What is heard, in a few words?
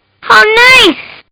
Kid Says How Nice; Partners In Rhyme